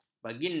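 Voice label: "baginda", Malay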